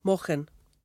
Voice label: "maughan" [MAWH-chen]; mittens; Word

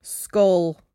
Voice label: "scawl", Cromarty; [skOHWl]; to bawl; Verb

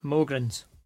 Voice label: "mowgrans" [MOH-grnz]; socks without feet; Word; Clothing

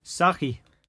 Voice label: "sachie", Cromarty; [sAHch-ee]; Word

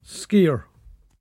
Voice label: "scare", Cromarty; [scAYr]; a splice; Word

